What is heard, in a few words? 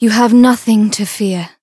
Voice variable chant; toova